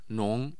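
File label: "nong2", Mandarin Chinese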